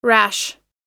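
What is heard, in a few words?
"rash", American English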